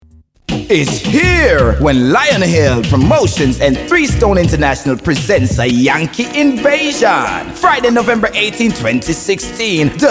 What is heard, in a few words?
commercial